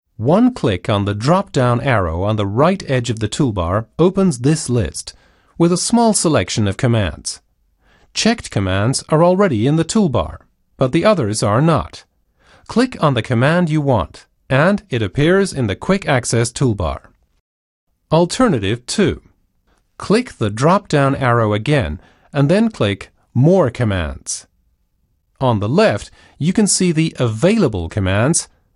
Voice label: Tutorial; Microsoft Office 2016